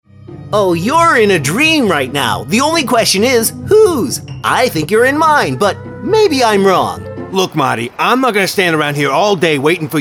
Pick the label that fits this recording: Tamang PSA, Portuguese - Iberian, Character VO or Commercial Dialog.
Character VO